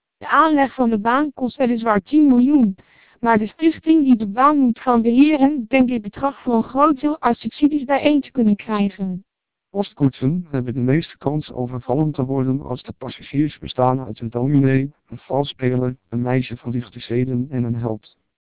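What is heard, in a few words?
Dutch speech, TWELP 700 bps vocoder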